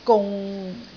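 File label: "gung6", Cantonese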